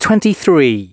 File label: announcements male